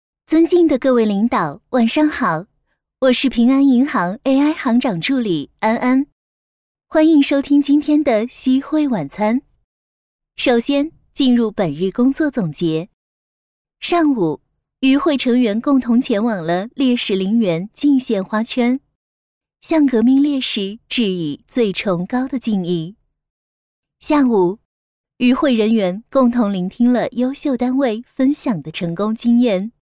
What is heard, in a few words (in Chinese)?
女, 人工智能; 安安